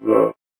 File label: VOICEFX ELCTR 140; Techno2